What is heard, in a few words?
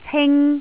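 "cing1", Cantonese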